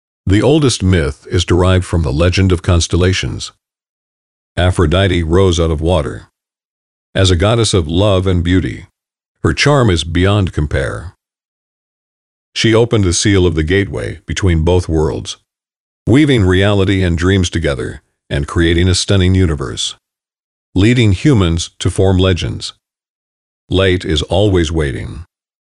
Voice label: 外籍男英 外语 美式英语 样音 浑厚

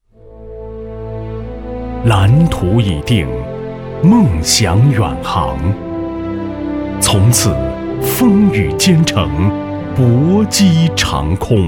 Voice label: 宣传片, 企业, 大气; 云南集团公司

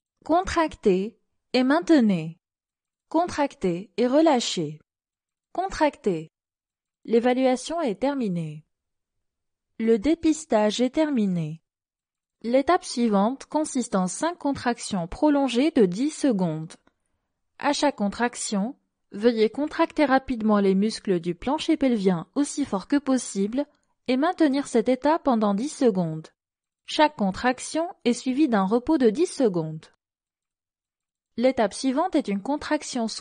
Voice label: 法语女, 外语, 小语种, 亲切

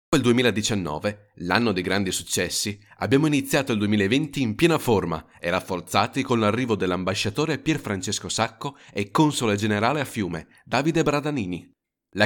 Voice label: 意大利语男 外语 小语种

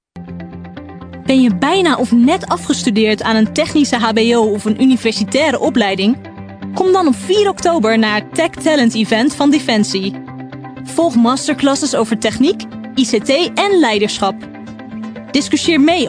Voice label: 荷兰语女 外语 小语种 科技

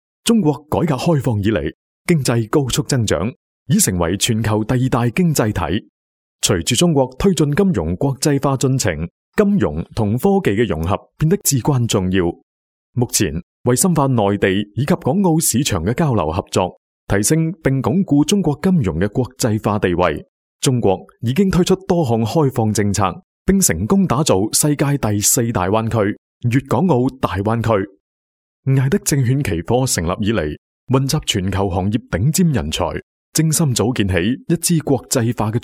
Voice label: 男粤 专题 企业 年轻, 粤语; 艾德证券

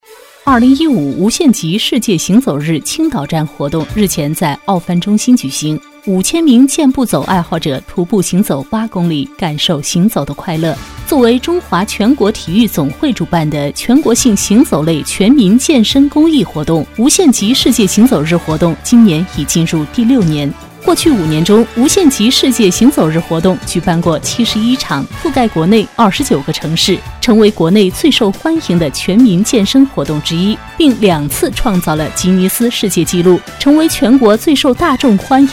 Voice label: 专题 新闻; 2015无极限世界行走日

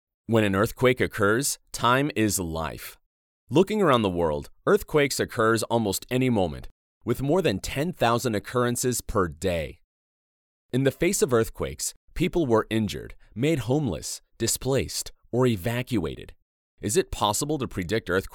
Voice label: 外籍男英 外语 美式英语 宣传片新 年轻

